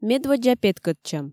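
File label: "медводдза петкӧдчӧм", Komi, female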